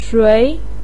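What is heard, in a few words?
"shui", Mandarin Chinese, second tone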